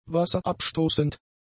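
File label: "wasserabstoßend", German; adjectives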